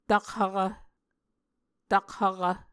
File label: "takhvkv", Muscogee